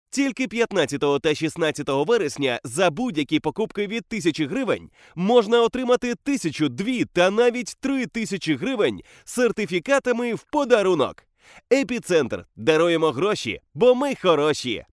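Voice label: ukrainian voiceover locutor ucrania, Ukrainian male voice over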